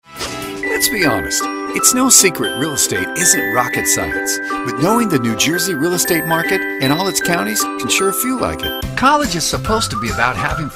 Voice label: English - American